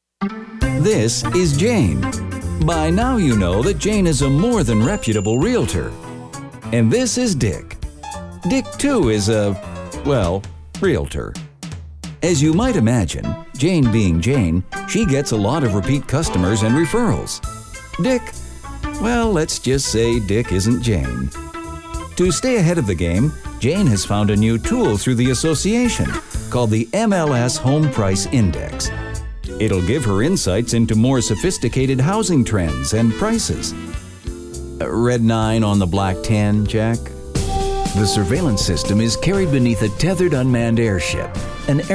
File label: Corporate Narration